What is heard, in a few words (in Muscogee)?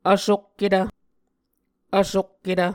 "vsokketv", Muscogee; A Dictionary of Creek/Muskogee; SIL International